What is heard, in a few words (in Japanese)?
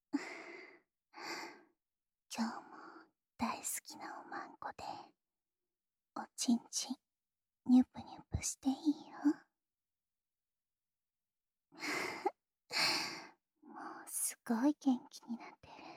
囁き淫語連呼; SEあり